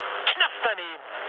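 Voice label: German bomb failure mood med, speech; War Thunder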